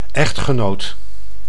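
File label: "echgenoot", Dutch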